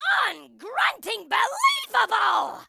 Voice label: announcer kill spree; kill spree 40 unfriggenbelieveable